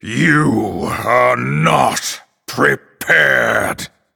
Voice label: Male Night Elf Threaten

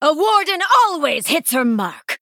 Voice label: Female Night Elf Play

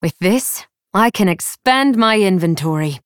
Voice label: Female Night Elf After Shop Upgrade

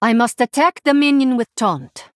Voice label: Female Draenei ERROR TAUNT

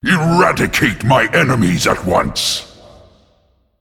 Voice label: Male Demon Bark

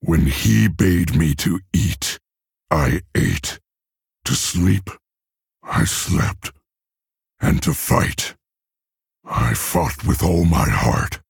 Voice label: Male Orc

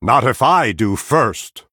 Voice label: Male Night Elf

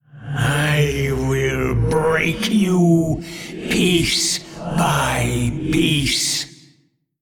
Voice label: Male Old God Threaten

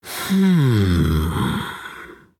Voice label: Male Human Thinking; HERO

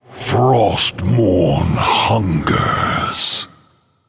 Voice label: Male Human Attack

